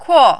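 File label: "kuo", Mandarin, fourth tone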